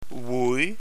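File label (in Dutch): "woei", Dutch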